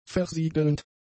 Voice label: "versiegelnd", German; adjectives

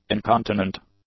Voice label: "incontinent", English; adjectives

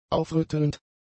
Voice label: "aufrüttelnd", German; adjectives

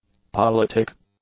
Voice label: "politic", english; adjectives

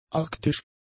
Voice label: "arktisch", German; adjectives

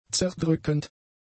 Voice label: "zerdrückend", German; adjectives

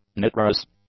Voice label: "nitrous", english; adjectives

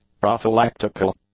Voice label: "prophylactical", english; adjectives

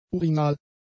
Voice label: "urinal", German; adjectives

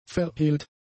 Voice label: "verhehlt", German; adjectives

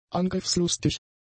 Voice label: "angriffslustig", German; adjectives

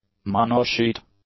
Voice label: "monosheet", English; adjectives

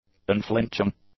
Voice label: "unflinching", english; adjectives

